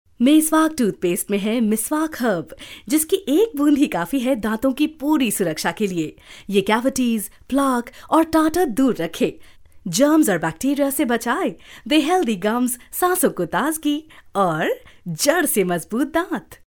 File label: female voice vo